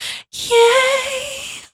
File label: Vocal Adlib "Yay"; One Shots; Ableton Live 12 Lite; Core Library